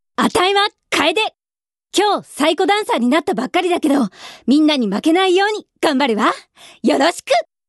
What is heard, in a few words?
female voice